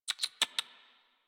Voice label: Horse Calm Adult; Warframe; Duviri

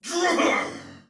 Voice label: Attack Heavy Soldier Male; Sinecure

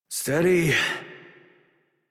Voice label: Horse Calm Adult; Warframe; Duviri

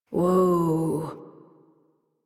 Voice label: Horse Stop Walk Adult; Warframe; Duviri

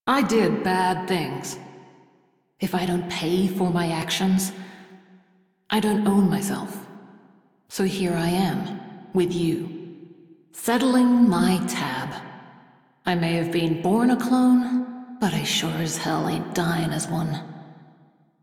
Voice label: Crew Idle, Female; Railjack Crew; Steel Meridian

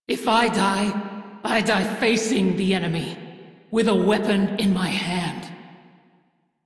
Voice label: Crew Near Death, Female; Dialog; en; Warframe; Railjack Crew; Steel Meridian

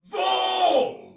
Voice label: Attack Normal, Soldier Male; Sinecure

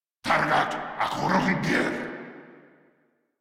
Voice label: Player Spotted, Grineer Male

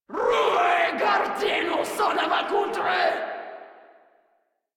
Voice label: Player Spotted Grineer Female, Barks, Battle

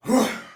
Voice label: Attack Soldier Male; Sinecure

